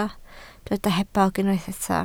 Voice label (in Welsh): "hebog", Welsh